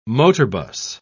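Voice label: "motorbus", British English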